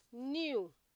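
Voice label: "new", Nigerian Pidgin, female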